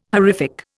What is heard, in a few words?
"horrific", Indian